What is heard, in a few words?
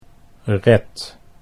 "red", Dutch